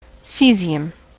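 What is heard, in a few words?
"caesium", US English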